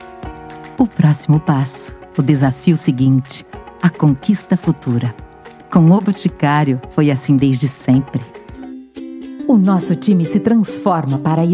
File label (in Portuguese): repertorio voz adulto jovem